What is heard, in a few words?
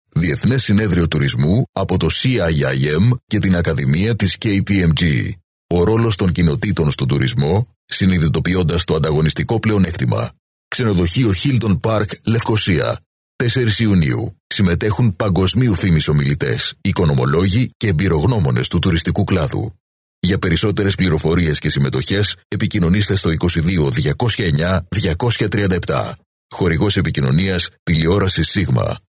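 Greek, male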